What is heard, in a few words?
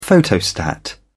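"photostat", British English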